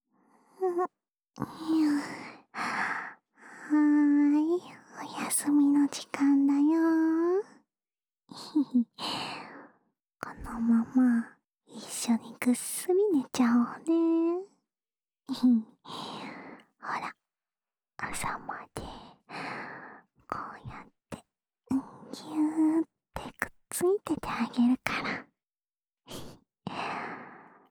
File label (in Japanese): 安眠用添い寝ループ（左耳）